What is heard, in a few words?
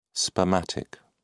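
"spermatic", British English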